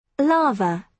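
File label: "lava", British English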